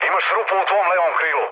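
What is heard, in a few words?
Serbian left wing mood low, speech; War Thunder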